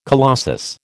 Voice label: "colossus", American

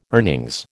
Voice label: "earnings", American

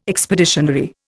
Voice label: "expeditionary", Indian

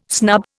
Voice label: "snub", Indian

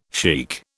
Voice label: "sheikh", British

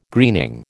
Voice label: "greening", American

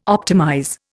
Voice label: "optimize", Indian

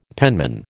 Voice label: "penman", American

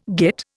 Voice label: "git", Indian

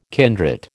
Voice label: "kindred", American

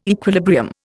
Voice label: "equilibrium", Indian

